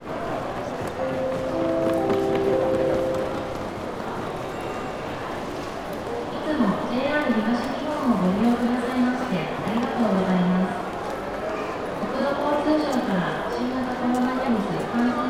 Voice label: sendai station announcement